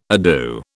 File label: "ado", British